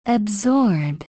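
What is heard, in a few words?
"absorb", USA, female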